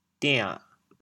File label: "dian6", chaoyang